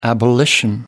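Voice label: "abolition", British English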